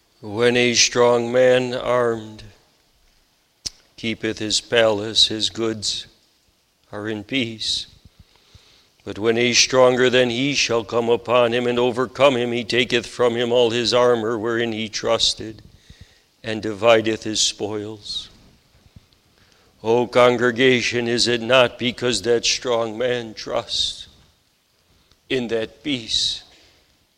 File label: Sermon Continued; The Strong Man Overcome; luke 11 21 22